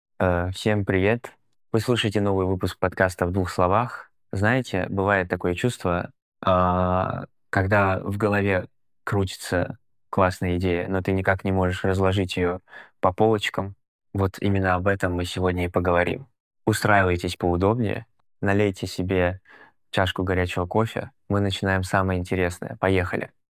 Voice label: speech podcast; minimax speech 28 hd; speech-minimax